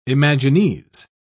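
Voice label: "imagines", British English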